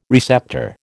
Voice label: "receptor", American

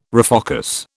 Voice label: "refocus", British

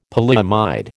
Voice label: "polyamide", American

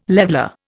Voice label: "leveller", Indian

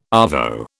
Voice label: "arvo", British

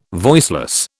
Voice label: "voiceless", British

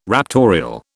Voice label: "raptorial", British

